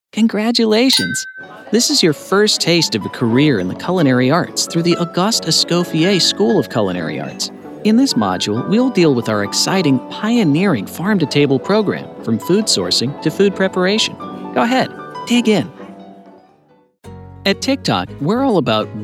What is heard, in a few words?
e-learning, short